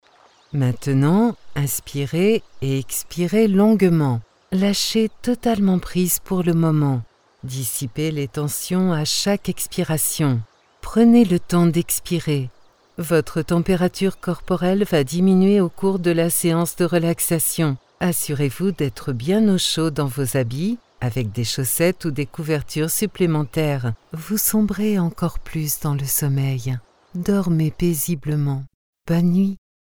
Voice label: french explainer videos

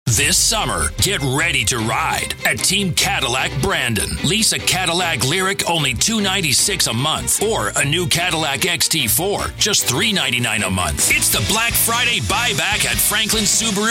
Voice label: english canadian automotive, voice actors

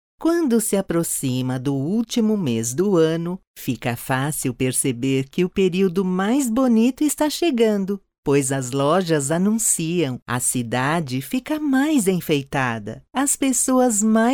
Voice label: portuguese brazilian audiobooks

